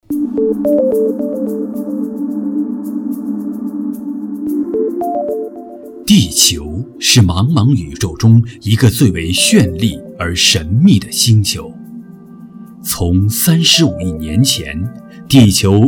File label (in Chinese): 纪录片 配音